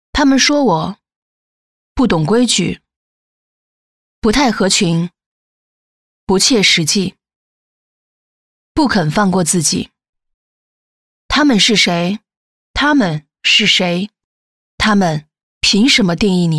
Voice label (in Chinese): 女 广告 个性; 他们说我